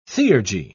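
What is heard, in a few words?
"theurgy", British English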